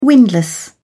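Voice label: "windlass", British English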